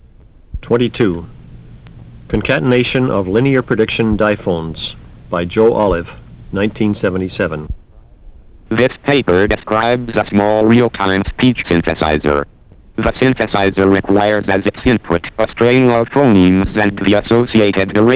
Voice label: lpc diphones